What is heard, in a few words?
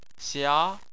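"xia", Chinese, second tone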